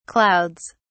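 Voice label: "clouds", British English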